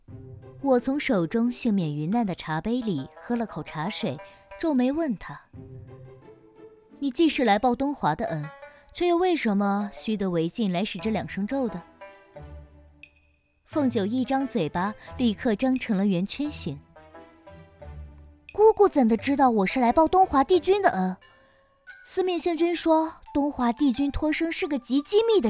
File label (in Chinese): 有声书片段古风言情少女音御姐音